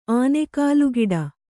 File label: "ಆನೆಕಾಲುಗಿಡ", Kannada